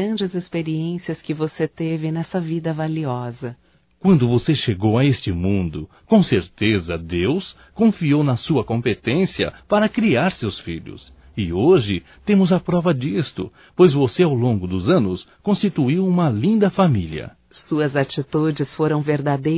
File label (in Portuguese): ANIV AVÔ, fem; Aniversarios; Telemensagens; Telemensagens 2017